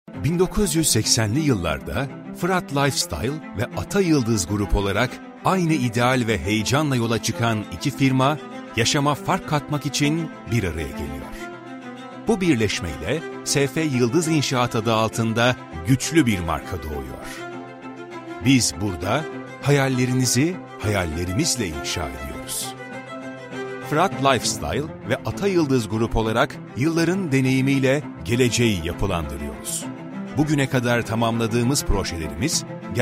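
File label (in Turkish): tanıtım filmi örneği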